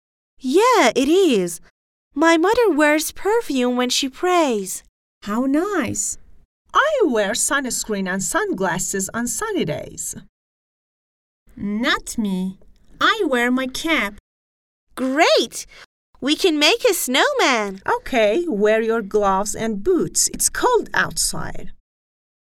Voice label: conversation